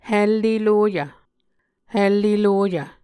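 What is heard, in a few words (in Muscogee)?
"helelueyy", Muscogee word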